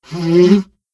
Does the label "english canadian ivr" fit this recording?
No